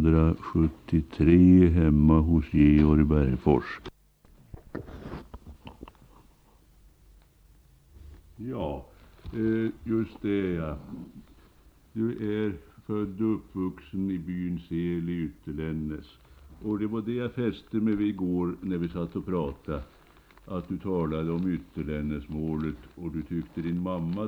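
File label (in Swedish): Sollefteå Ångermanland, dialekter; Folkminnen; Svenska sagor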